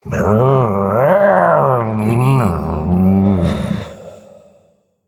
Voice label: Male Murloc Picked; en

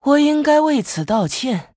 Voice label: Female Human Sorry, zh

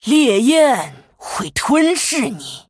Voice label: Female Human TRIGGER Pyroblast; zh

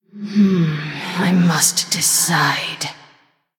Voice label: Female Human Thinking; en

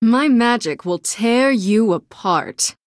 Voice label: Threaten

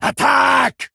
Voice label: Male Dwarf Attack; en

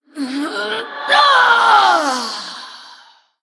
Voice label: Female Human Death; en

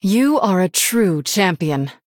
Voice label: Female Human Well Played; en

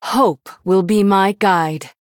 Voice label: Female Human Start; en

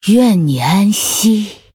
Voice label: Female NightElf Threaten; zh

